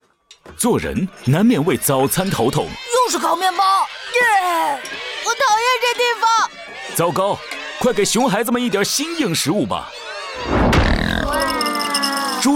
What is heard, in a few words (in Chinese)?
Mandarin Ad Kids, 电台广告; 双点校园; 低端科技